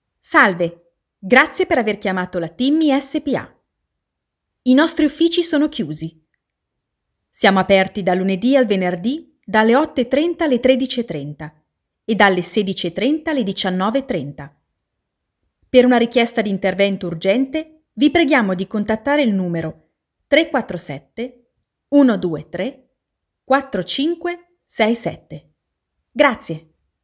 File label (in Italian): female Italian segreteria telefonica IVR